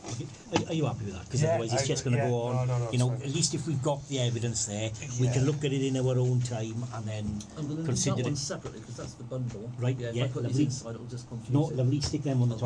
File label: police interview extract